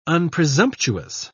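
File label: "unpresumptuous", British English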